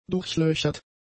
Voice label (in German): "durchlöchert", German; adjectives